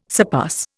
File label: "surpass", Indian